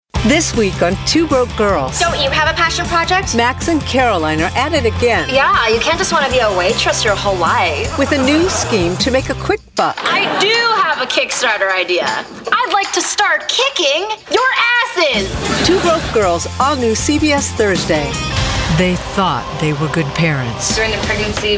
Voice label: Promo